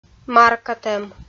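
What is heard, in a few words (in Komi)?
"маркатӧм", Komi-Zyrian, female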